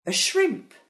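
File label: "crevette", French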